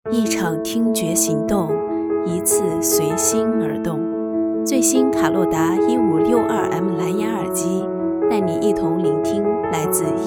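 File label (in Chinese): 广告配音三种风格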